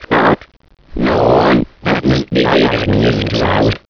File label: gibberish